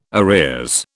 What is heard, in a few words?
"arrears", British